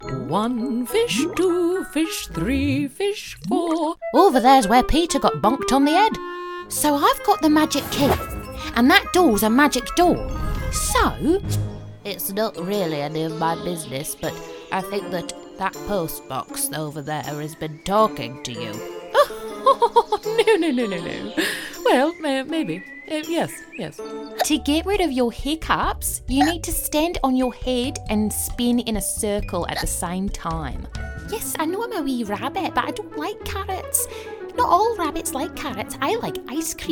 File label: Animation